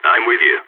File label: following friend, balkan